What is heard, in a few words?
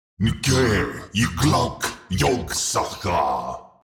Demon king lord spell casting